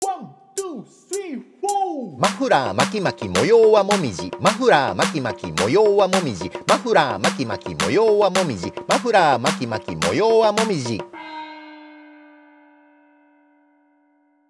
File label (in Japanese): 早口言葉リズム（中級編）